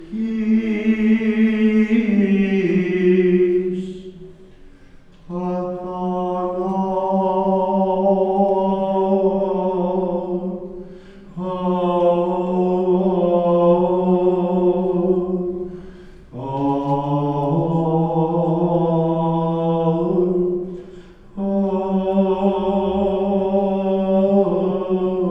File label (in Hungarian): templom belülről kántáló pap; lazar templom